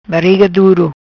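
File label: "Bariga Duro", patua; prisão de ventre; constipation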